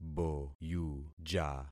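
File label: "boyuja", concatenated syllables; Affixing experiment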